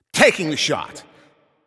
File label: Shot On Goal, Announcer